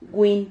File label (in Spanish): "gûin", Silabas